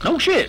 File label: "oh shit", cartoon; Voice; expletives